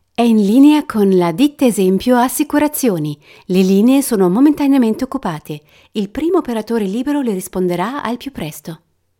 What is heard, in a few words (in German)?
Beispiel Telefonansage italienisch